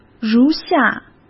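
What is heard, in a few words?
"如下", Mandarin Chinese; hsk; chinese-flashcards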